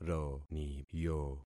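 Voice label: "roniyo", concatenated syllables; Affixing experiment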